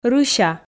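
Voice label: "рыся", Komi, female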